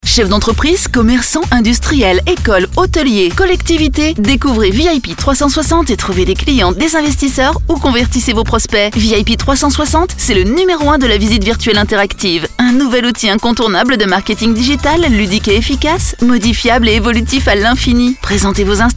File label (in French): spot; vip 360